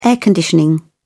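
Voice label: "air conditioning", British English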